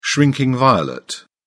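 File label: "shrinking violet", British English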